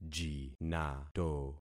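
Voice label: "jinato", concatenated syllables; Affixing experiment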